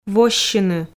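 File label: "воссьыны", Komi, female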